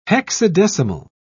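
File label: "hexadecimal", English, British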